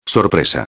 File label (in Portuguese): "sorpresa", espanhol